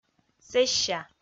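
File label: "сэсся", Komi, female; kpv audio dict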